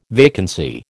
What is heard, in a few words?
"vacancy", American